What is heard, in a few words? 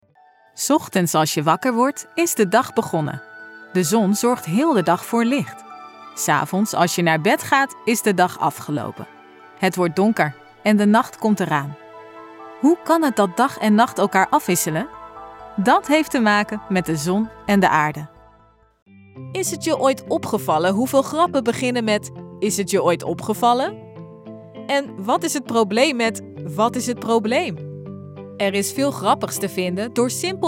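Dutch explainer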